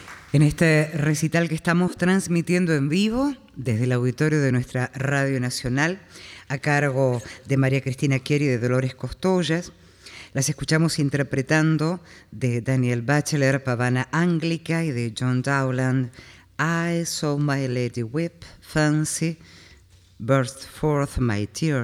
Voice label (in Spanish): locutora